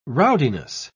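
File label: "rowdiness", British English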